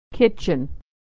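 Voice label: "kitchen", American English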